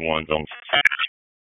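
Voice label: Police Spec Ops Tac, radio; Raleigh; wake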